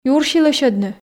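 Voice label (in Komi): юрси лӧсьӧдны, female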